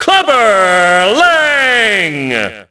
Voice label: announce, ENGLISH; Rocky; XBOX